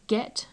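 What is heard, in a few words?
"get", British English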